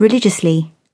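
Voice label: "religiously", British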